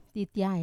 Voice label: "titiai" to stick, Wamesa

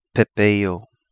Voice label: "Pepeio", Kapingamarangi

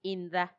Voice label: "inda", water, macuiltianguis; agua

